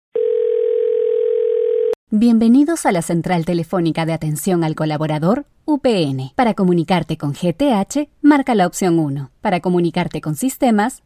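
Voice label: spanish latin american interactive voice response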